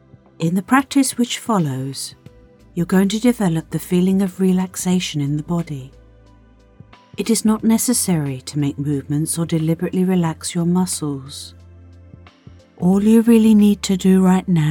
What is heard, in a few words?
Lovely Voice Relaxing